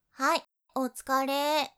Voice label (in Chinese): 辛苦啦ー, 女生 少女